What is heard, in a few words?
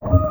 server start, broadcast; dystopia1.3 server